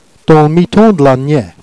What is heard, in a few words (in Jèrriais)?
"dans l'mitan d'la niet", Jèrriais